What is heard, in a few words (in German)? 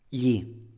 "gli", Italienisch; Standard-Italienisch; ihm; Schnitt